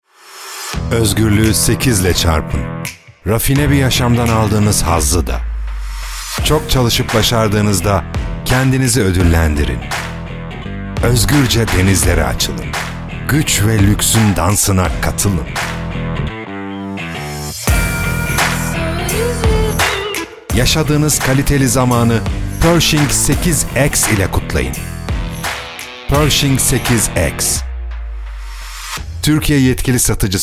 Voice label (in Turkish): reklam